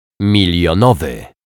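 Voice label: "milionowy", Polish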